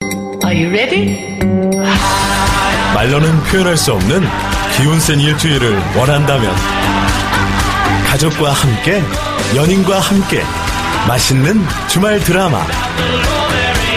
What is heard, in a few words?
Korean Voice Sample Thoughtful Read